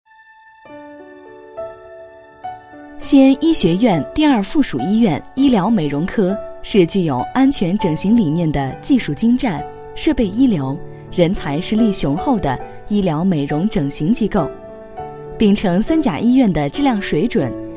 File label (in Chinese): 女 广告 医院广告宣传类